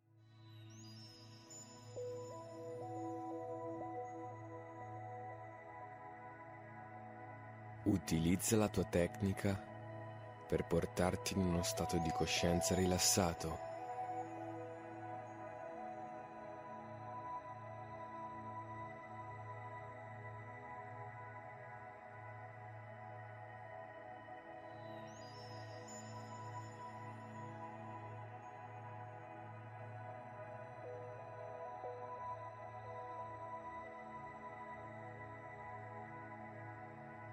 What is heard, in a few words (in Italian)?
Meditazione urgente per la pace tra Russia Ucraina e NATO Italian guided audio